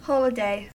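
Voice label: "holiday", American English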